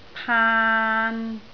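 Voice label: "paan3", Cantonese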